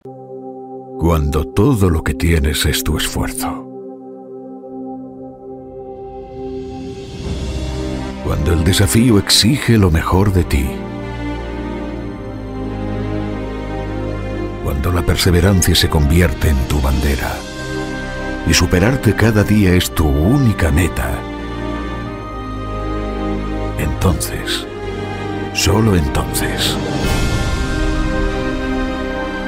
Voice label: Ispanyolca Erkek; seslendirme

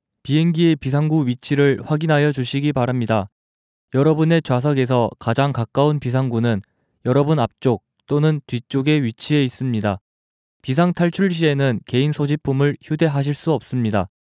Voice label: Korece Erkek, seslendirme; erkek sesler

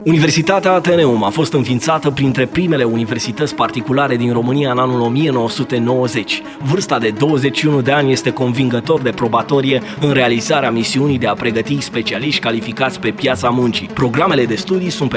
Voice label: Romence Erkek; romence seslendirme; erkek sesler

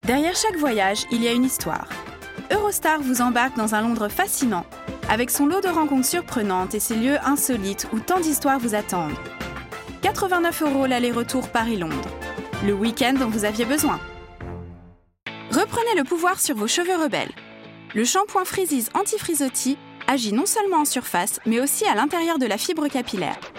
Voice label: Fransizca Kadin; seslendirme

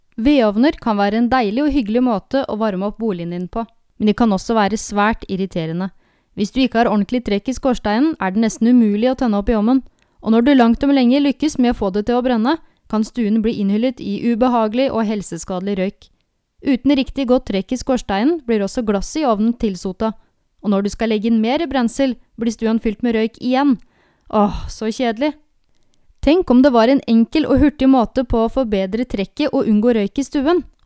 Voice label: Norvecce Kadin; norvecce seslendirme; kadin sesler

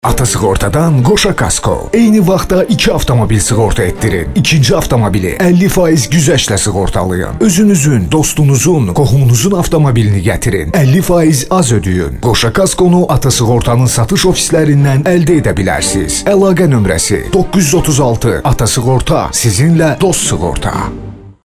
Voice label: Azerice Erkek, seslendirme

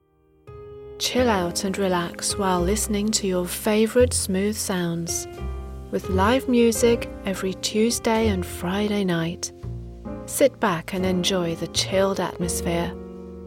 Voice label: Ingiliz Ingilizcesi Kadin; seslendirme

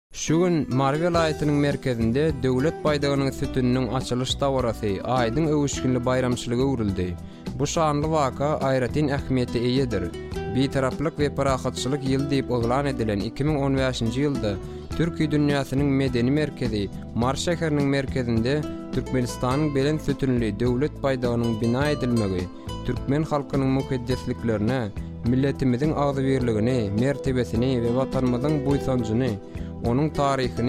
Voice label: Turkmence Erkek, turkmence seslendirme; erkek sesler

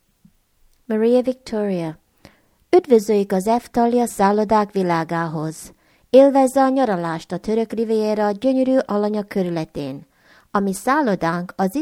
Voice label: Macarca Kadin; macarca seslendirme; kadin sesler

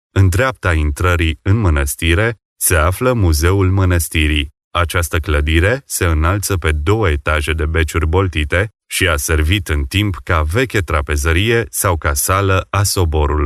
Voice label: Romence Erkek, seslendirme; erkek sesler

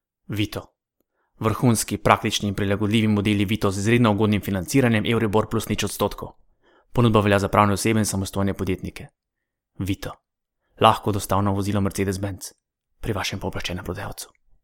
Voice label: Slovence Erkek, slovence seslendirme; erkek sesler

